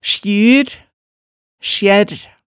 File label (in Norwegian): "sjyr", hemsedalsmaalet